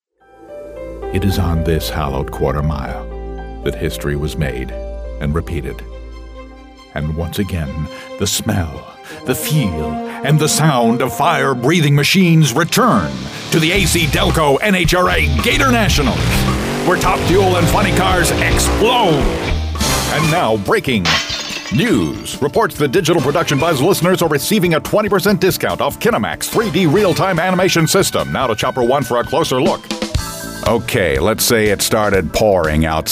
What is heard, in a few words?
Energy & Attitude Commercials